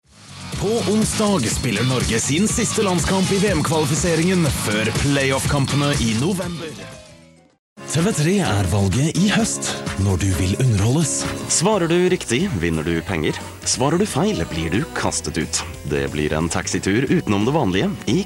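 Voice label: Norwegian Norway male sales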